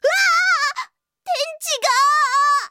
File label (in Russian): zvuki s golosom iaponskoi anime devushki